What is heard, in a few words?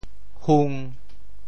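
"hung1", Teochew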